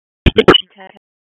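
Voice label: Dispatch, p25; WSO DISP 3; wake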